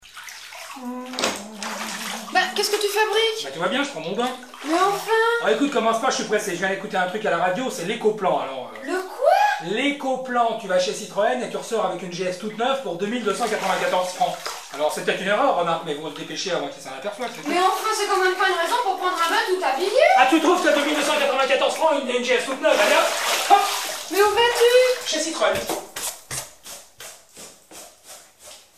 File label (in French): radio spot